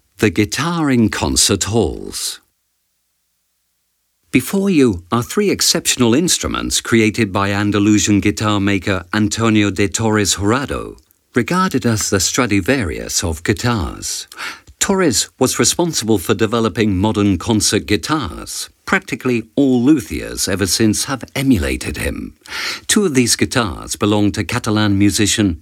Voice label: audioguia english; delamusica